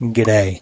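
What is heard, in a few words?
"gidday", Australian English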